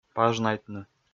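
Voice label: "пажнайтны", Komi, male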